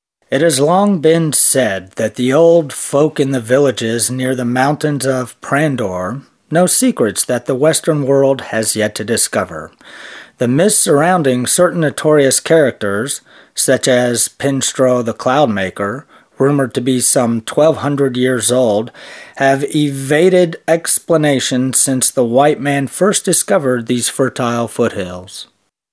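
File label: male English documentary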